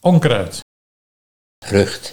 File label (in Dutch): "Rucht", Nieuw lekkerlands dialect